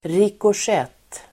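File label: "rikoschett", Swedish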